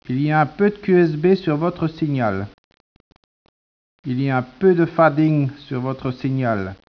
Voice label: speech, French